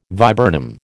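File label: "viburnum", American English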